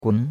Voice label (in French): "gun2", chinois; Cours-de-Chinois